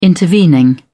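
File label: "intervening", British English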